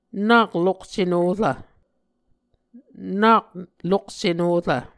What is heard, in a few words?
"nak lokce norv", Muscogee